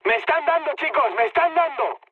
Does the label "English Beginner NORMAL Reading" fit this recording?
No